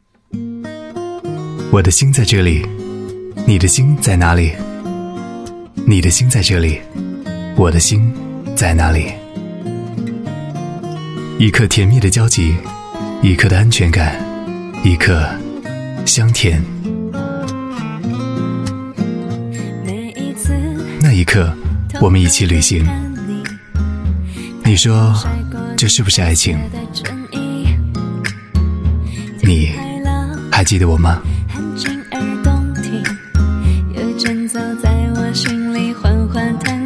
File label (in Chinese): 微电影 旁白